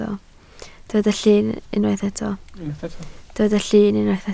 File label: "llun", Welsh